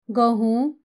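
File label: "wheat", Nepali; grade3; nutritious food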